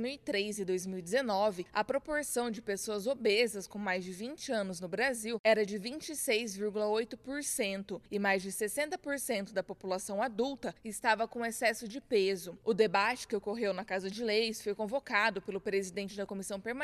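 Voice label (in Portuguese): spot obesidade radio camara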